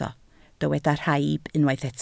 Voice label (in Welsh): "rhaib", Welsh